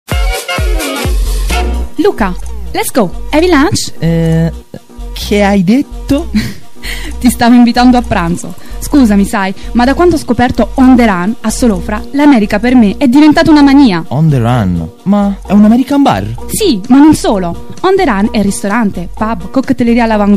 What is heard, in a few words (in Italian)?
generica doppia voce; voci